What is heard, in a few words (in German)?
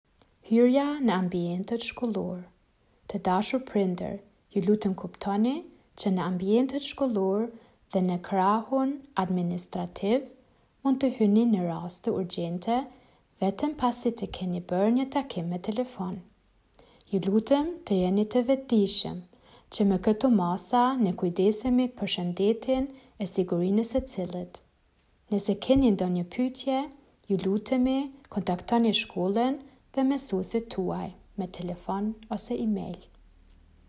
Albanisch